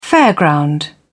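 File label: "fairground", British English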